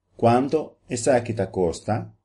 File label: how much papiamento